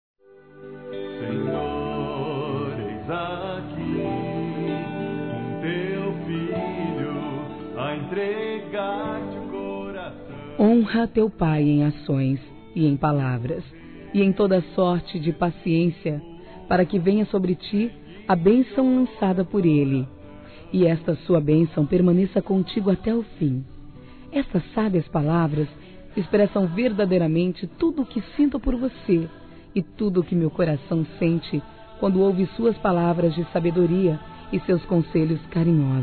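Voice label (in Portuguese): Trilha ANIVERSÁRIO PAI, Gospel, fem; Telemensagens; Telemensagens 2017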